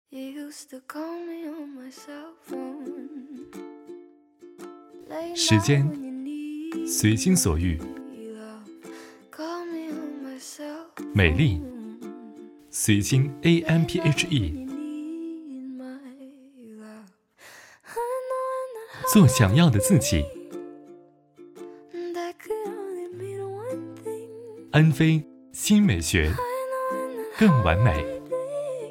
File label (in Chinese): 化妆品广告